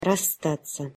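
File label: "rasstat sja", Russian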